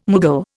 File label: "Mughal", Indian